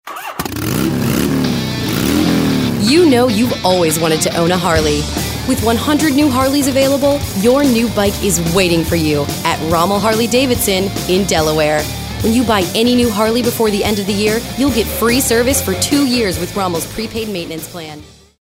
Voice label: informative